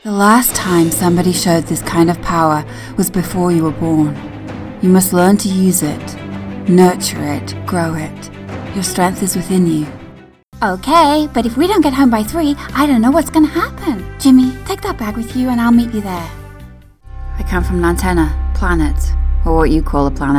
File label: Animation Reel, voice work